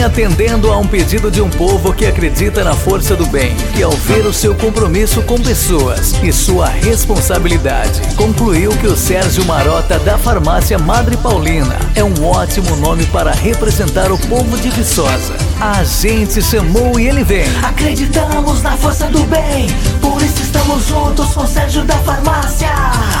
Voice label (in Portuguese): jingle pre campanha agora e oficial jingle vereador prefeito eleicao; campanha politica 2024